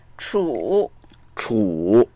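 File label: "chu3", Mandarin Chinese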